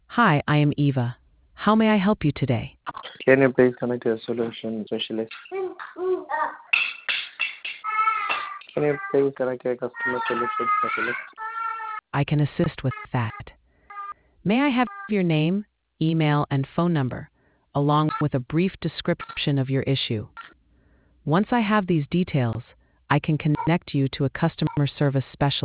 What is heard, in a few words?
conversation